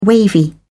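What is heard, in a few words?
"wavy", British English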